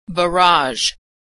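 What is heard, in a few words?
"barage", American English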